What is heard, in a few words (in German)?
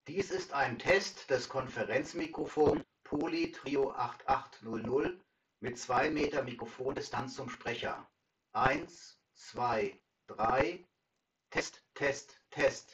Poly Trio 8800 auf 2m Entfernung, 2 Meter Abstand zum Sprecher; Poly Trio 8800 auf 2m Entfernung mit einer Bluetooth Verbindung getestet.